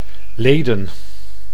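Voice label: "leden", Dutch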